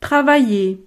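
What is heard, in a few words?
"travailler", French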